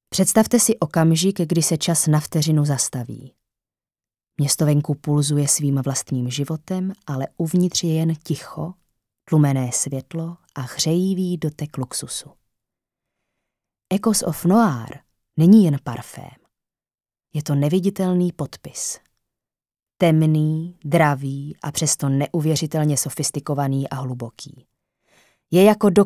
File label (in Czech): ukazka reklama